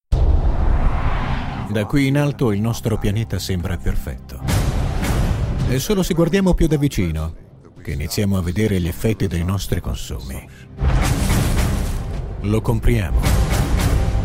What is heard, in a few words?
Spot Trailer; Trashed Trailer